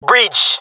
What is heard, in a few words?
"bridge", jungle male; player vo; Counter Strike Model Pack; CS:GO